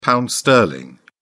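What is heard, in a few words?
"pound sterling", British English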